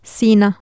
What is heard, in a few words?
"siina", Urdu